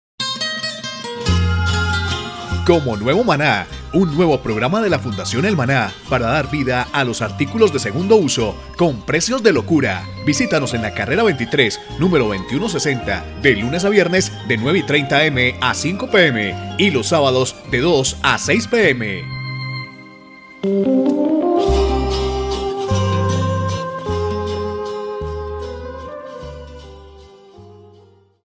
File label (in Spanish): CUNA RADIAL PERIFONEO; ALMACEN MANA